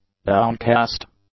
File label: "downcast", English; adjectives